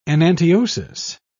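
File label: "enantiosis", British English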